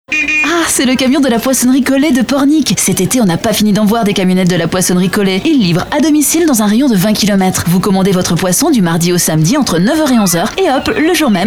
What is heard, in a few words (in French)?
radio pub spot